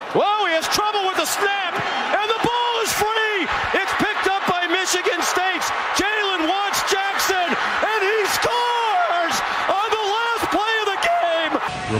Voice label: English football reporter

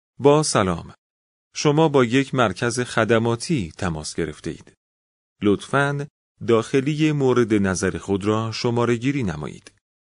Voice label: farsi sound

